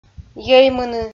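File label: "йӧймыны" Komi, female; audio dict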